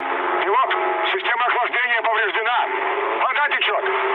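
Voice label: Russian damaged water mood low, speech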